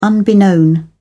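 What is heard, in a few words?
"unbeknownst", British English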